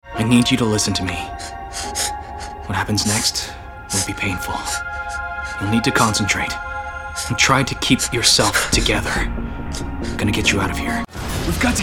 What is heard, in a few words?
animation men interactive